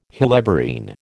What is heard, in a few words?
"helleborine", American